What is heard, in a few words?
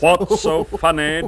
what's so funny, british bloke; questions